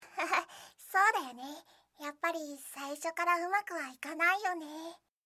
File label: anime, Irritated & Angry Phrases from Anime Girls